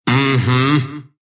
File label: move pain, ru; Monkey